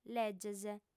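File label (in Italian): "leges", lingua sarda, fem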